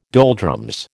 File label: "doldrums", American